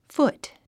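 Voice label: "foot", English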